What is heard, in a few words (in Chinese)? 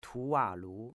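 "Tuwalu", Chinese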